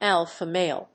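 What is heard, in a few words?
"alpha male", English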